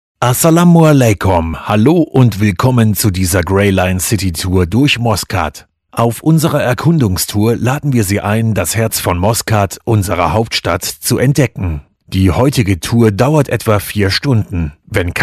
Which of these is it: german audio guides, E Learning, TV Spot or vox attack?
german audio guides